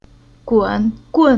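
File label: "Gun", Chinese syllable; Уроки фонетического блока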